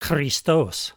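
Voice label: "christos", Koine Greek; nomina sacra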